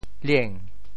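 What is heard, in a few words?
"lieng2", Teochew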